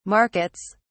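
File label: "markets", British English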